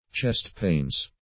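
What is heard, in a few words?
"chest pains", English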